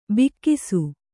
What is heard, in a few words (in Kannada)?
"ಭಾಗಸ", Kannada